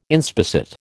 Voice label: "inspissate", American